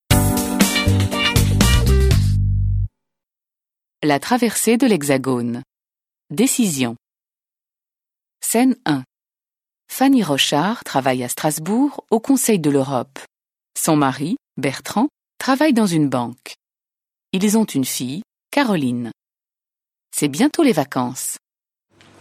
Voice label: French